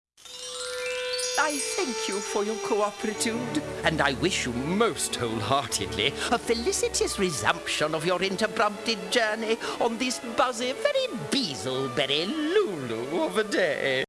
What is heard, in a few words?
silly brit